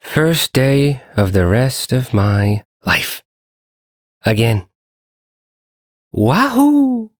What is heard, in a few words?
Ambient Sedentary, en; Duviri